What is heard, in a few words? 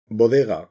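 "bodega", Spanish, castilla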